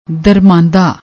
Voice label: "darmanda", Punjabi; ਦਰਮਾਂਦਾ